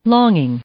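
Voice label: "longing", English, female; noun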